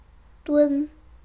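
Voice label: "dun", Chinese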